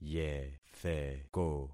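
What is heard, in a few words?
"yetheko", concatenated syllables; Affixing experiment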